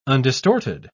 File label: "undistorted", pronounce